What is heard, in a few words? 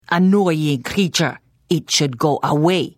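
Service, Khajiit female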